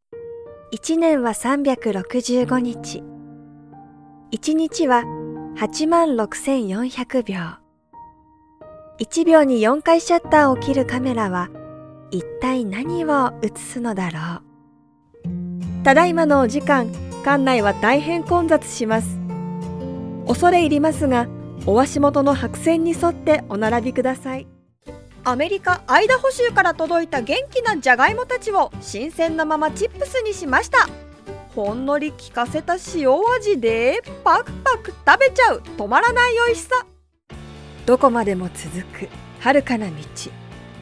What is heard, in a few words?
JAPANESE CASUAL